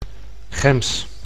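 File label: "gems", Dutch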